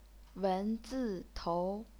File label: wen zi tou, chinese pinyin